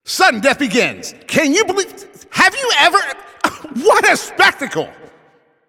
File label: Announcer Overtime Starts